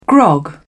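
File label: "grog", British English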